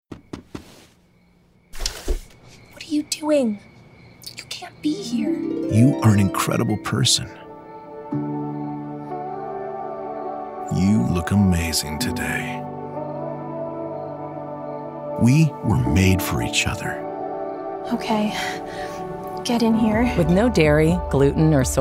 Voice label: Voice Over; Daiya Loves You Back